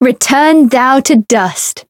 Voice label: Voice chant; eva